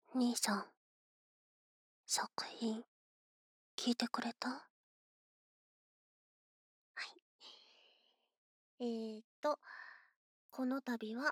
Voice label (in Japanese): フリートーク, ASMR